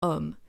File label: "homme", French